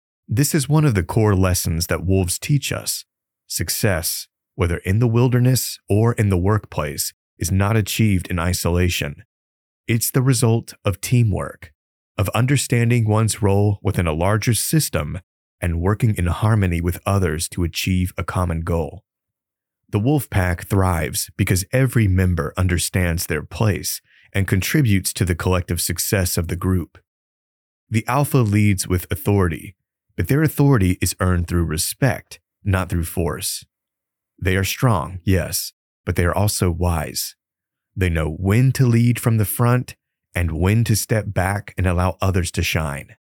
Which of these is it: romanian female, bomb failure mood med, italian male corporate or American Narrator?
American Narrator